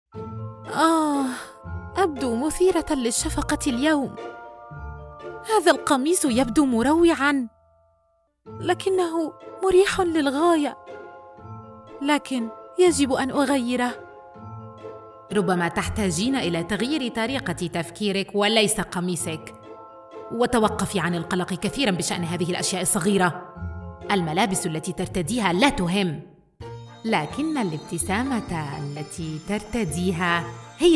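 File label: girl and alien, Voice Acting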